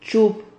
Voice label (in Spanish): "chub", Silabas